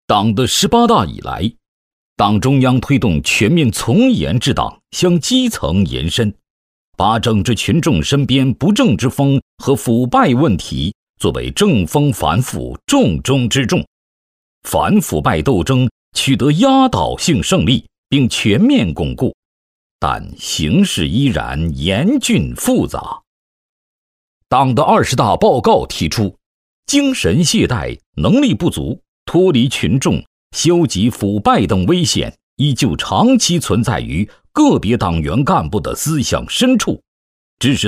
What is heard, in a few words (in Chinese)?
男 警示教育片 正气严肃, 高端内涵 大气浑厚; 男128-警示教育片-正气严肃